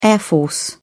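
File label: "air force", British English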